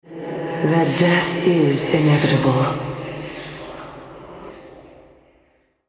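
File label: Altar Capture Female, en US; ShadowIsles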